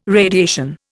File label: "radiation", Indian